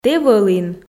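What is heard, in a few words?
"тэ вӧлін", Komi, female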